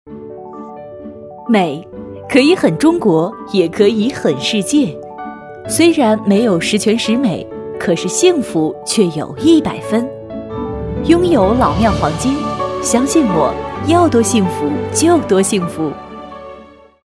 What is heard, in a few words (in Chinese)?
女 广告配音 知性; 老庙黄金